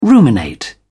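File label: "ruminate", English, British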